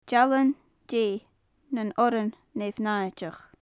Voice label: "dealan dè nan oirean neamhnaideach", gaelic; Faclan Nàdair